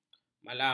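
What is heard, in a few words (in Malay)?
"malam", Malay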